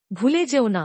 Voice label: Don’t forget. Bangla; Time and Routine

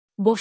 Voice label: Sit down bangla; Simple Commands

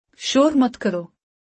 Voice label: "Dont shout", Urdu; Basic Greetings and Courtesy

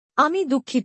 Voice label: I am sad, bangla; Expressing Feelings

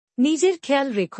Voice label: Take care of yourself. bangla; Basic Greetings and Courtesy

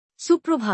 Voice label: "good morning", bangla; Basic Greetings and Courtesy

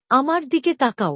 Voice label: Look at me. Bangla; Simple Commands

